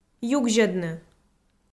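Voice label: "югзьӧдны", Komi, female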